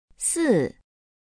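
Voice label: "si", Chinese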